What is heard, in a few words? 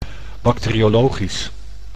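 "bacteriologisch", Dutch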